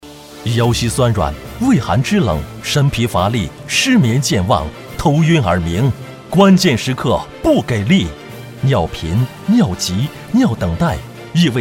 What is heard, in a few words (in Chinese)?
男 电视购物; 三宝